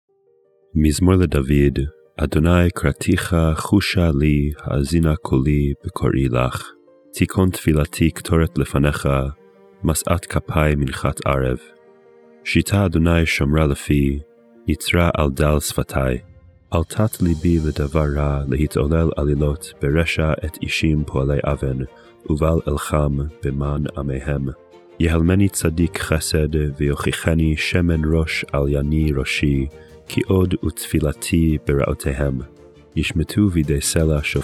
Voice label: poetry and prayer psalm hebrew